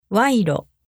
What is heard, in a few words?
"wairo", Japanese